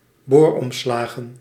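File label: "booromslagen", Dutch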